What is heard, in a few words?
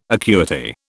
"acuity", British